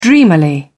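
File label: "dreamily", British English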